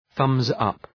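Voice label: "thumbs up", English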